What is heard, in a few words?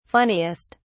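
"funniest", British English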